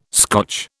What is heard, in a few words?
"Scotch", British